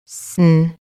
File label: SN snow phoneme; This beginning blend says